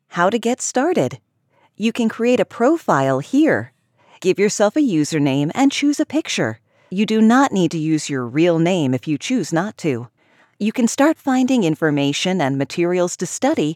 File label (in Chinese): 亲切 说明